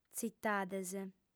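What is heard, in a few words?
"tzitades", female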